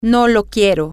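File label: i dont want it, spanish, female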